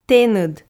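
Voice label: "тэныд", Komi, female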